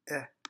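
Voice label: "epsilon", French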